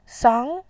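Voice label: "sang", Mandarin Chinese, second tone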